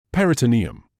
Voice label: "peritoneum", English